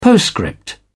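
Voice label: "postscript", British English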